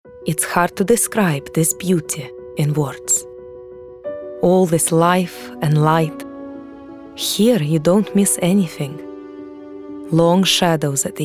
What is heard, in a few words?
RU ENG Montage; STV